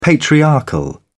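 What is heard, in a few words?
"patriarchal", British English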